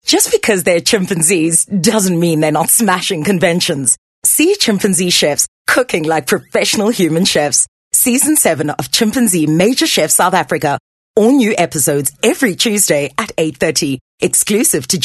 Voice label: English; Skill Promo

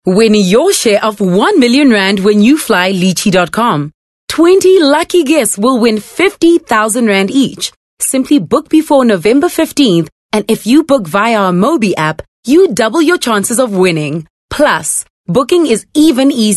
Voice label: English hard sell

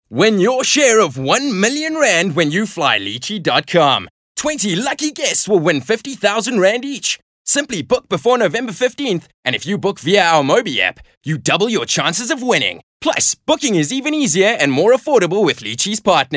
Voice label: English Hardsell

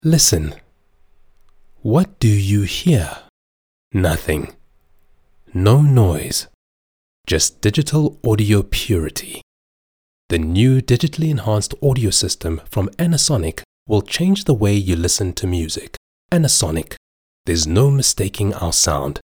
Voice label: English Soft Sell; OSM Talent

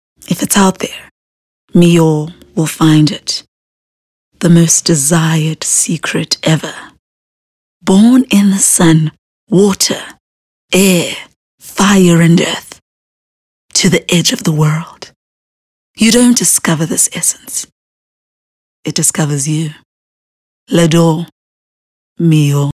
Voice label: English Intimate

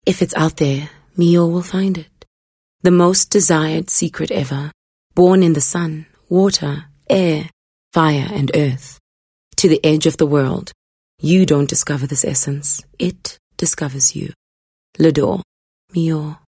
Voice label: English Sexy

